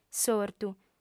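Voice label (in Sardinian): "s'ortu", female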